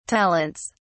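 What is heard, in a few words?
"talents", British English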